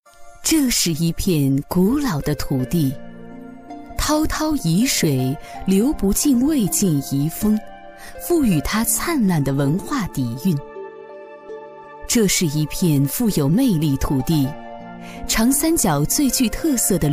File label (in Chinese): 女 专题; 耿圩中学